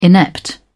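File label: "inept", British English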